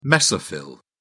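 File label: "mesophyll", British English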